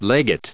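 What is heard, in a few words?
"legate", US English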